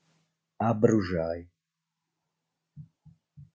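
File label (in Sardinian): "Abbruxai", Sardinian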